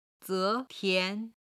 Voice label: "ze2 tian2", Chinese